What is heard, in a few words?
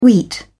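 "wheat", British English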